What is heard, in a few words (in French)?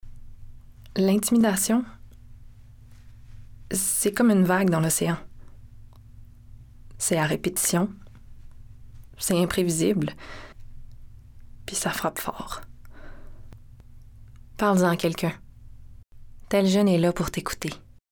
voix femmes; tel jeunes